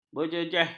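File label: "berjejeh", Malay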